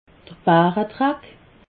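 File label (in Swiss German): "baredrack", Alsatian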